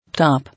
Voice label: "Top", English